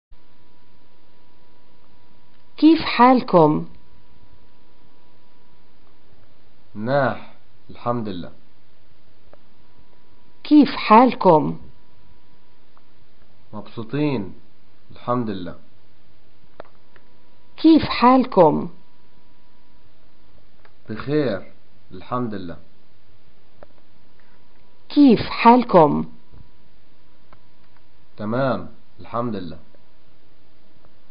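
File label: "getacqua how are you response", Palestinian Arabic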